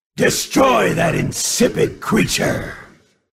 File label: order, grunt sounds